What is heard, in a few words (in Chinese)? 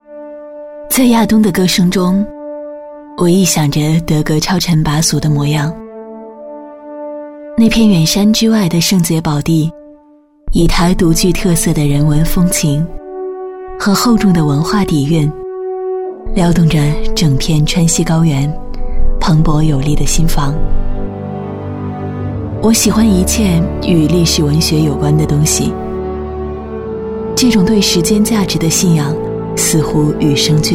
女 介绍宣传片; 《亚东的歌》